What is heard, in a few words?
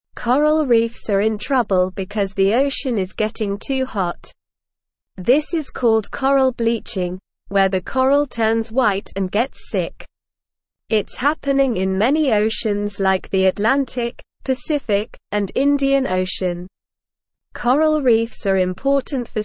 English SLOW Reading; Hot Ocean is Making Coral Reefs Sick